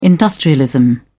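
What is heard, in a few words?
"industrialism", British English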